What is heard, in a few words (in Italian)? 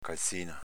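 "calzìna" Veneto dialect; s.f. calce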